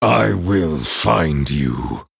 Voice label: Male Night Elf Threaten